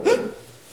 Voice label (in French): hein surprise